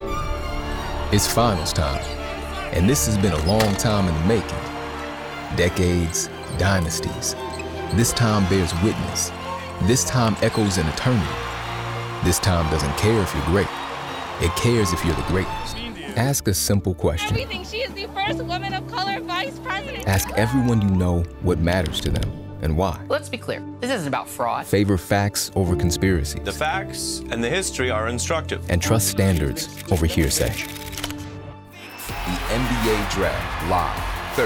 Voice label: Promo

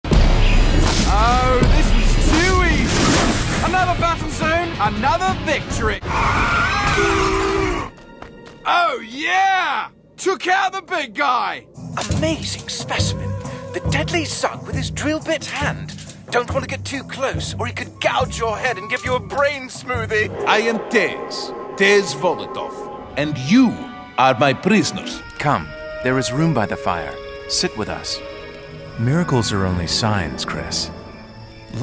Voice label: ANIMATION

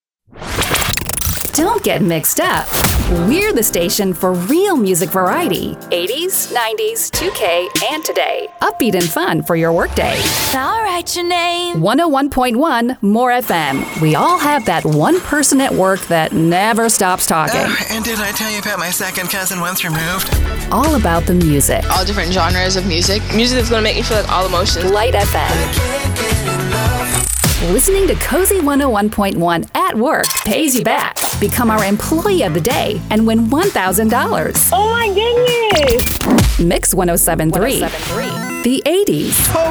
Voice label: Radio Imaging

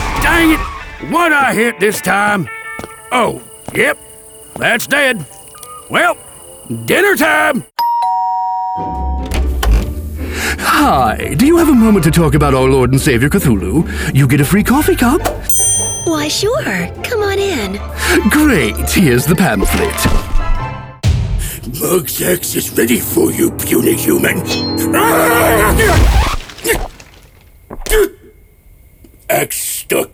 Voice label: Character; Character Demo

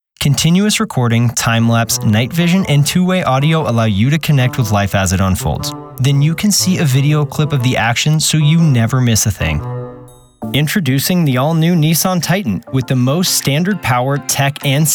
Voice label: Commercial Demo

